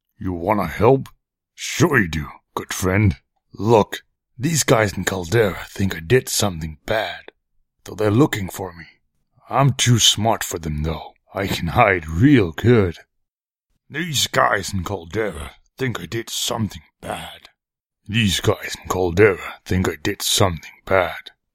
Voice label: orc audition